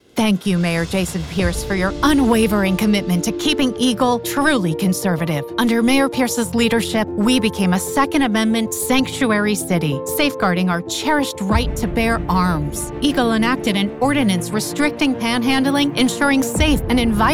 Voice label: Republican Image Ad VO